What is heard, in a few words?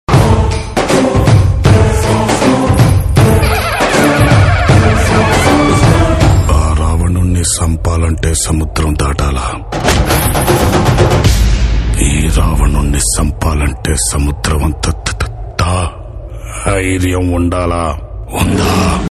Teaser Dialogue Tone Telugu Ringtone; Jai Lava Kusa; NTR